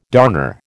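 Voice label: "darner", American English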